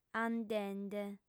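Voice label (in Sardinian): "andende", lingua sarda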